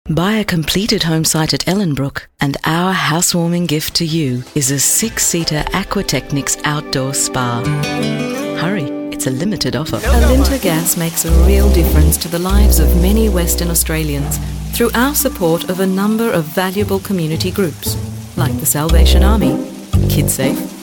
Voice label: Soft Corporate

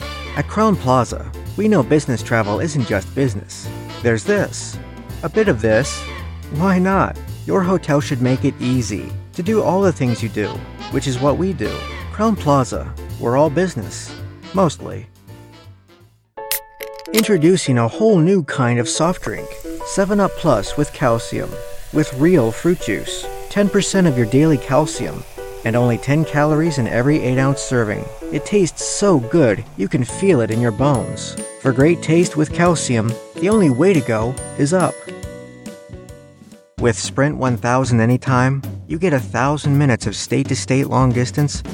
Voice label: Commercials

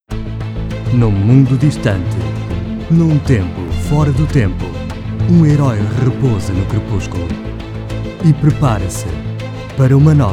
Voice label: Trailer PT

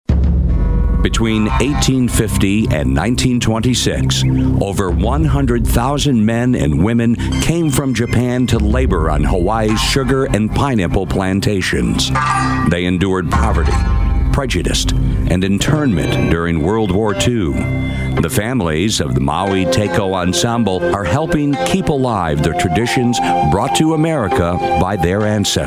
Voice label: Narration Samples

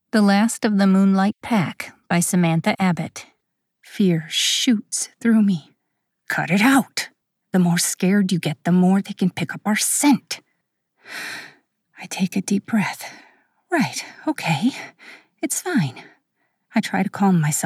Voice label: Audiobook

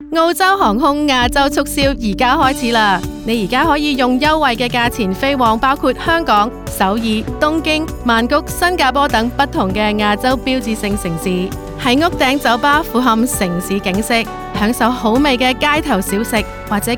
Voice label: Cantonese; QANTAS Asia Red Tail Sale

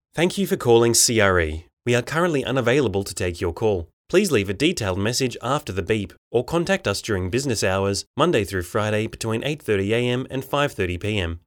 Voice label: On-Hold

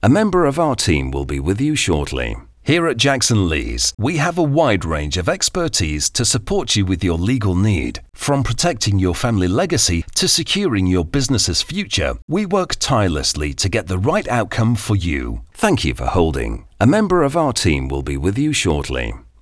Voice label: On Hold

